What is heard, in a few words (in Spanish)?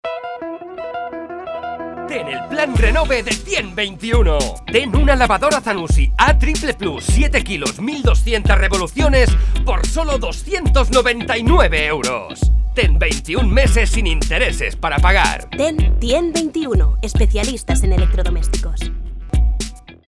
cuña lavadora zanussi